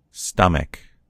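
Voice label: "stomach", American English; gcompris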